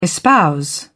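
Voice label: "espouse", British English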